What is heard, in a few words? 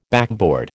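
"backboard", American English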